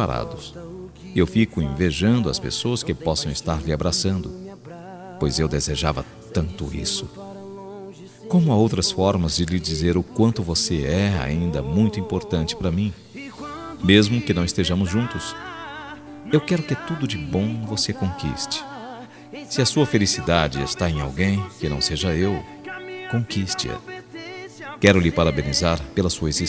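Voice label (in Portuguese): ANIV EX male, Telemensagem Aniversário Ex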